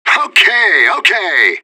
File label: radio bot cheer; fbihrt